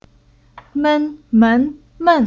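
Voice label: "Men", Chinese syllable; Уроки фонетического блока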